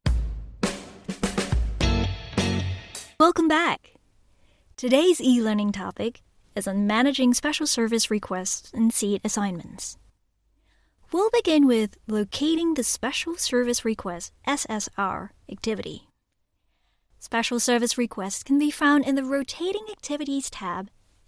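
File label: Chinese Taiwanese, accented English UK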